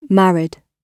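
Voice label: "married", British English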